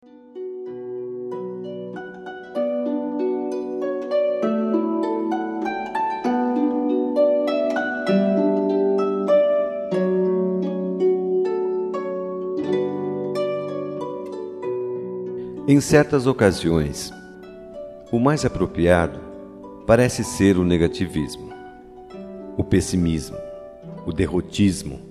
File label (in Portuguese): SER POSITIVO M